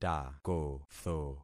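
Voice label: "takotho", concatenated syllables; Affixing experiment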